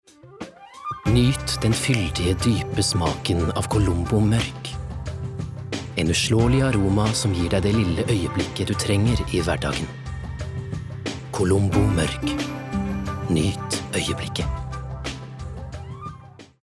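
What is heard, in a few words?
Norwegian soft sell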